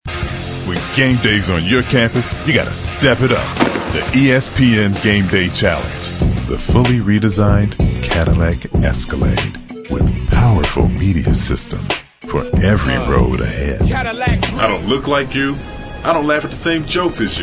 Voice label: male mid 30s mid 40s cool